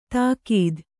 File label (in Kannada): "ತಾಕೀದ್", Kannada